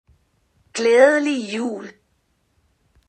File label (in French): danois; calendrier avent multilingue